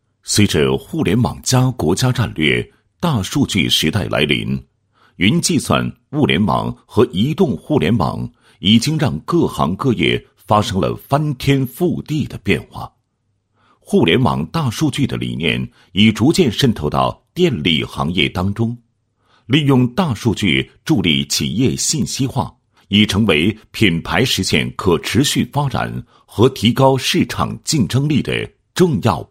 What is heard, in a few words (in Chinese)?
男 企业宣传 信息技术事业部; 国电南京自动化股份有限公司